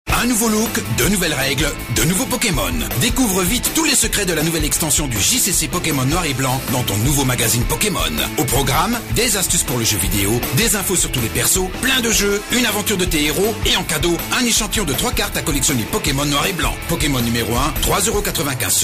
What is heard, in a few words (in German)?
FR Werbung Dynamisch